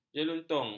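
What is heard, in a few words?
"jelutung", Malay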